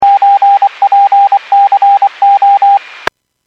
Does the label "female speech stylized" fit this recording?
No